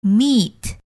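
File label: "meat", English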